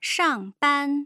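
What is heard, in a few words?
"shangban", Mandarin Chinese, tone pairs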